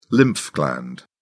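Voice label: "lymph gland", British English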